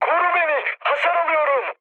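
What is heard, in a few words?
Turkish aircraft damaged, mood med, speech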